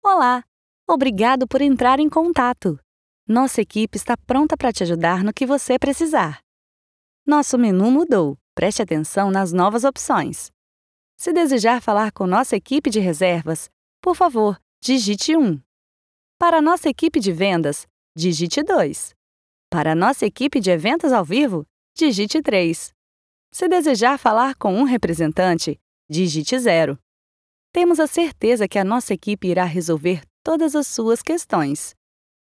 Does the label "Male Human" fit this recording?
No